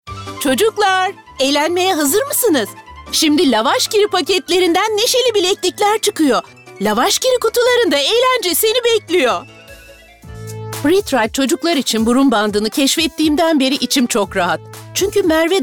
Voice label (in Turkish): REKLAM